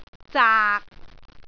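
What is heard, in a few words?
"zaak6", Cantonese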